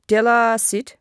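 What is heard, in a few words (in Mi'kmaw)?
"telasit", Mi'kmaq; wowkwis aqq kaqaquj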